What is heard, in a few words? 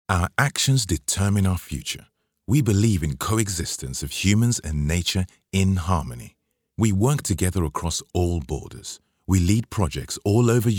english british political ads